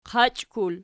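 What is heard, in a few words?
"qachkul" nominative, Archi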